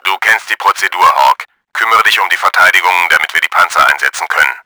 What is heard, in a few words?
CLEAR DEFENCES, german; Battle Engine Aquila